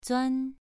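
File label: "zuan2", Chinese pronunciation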